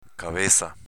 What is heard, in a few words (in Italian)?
"caveza", dialetto veneto